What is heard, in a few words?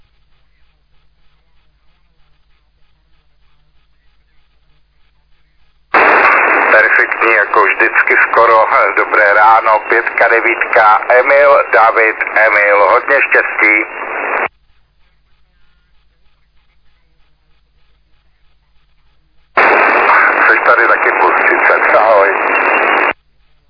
SSB LSB 3.5MHz; SSB-LIGA